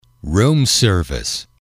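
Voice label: "room service", English, male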